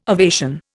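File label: "ovation", Indian English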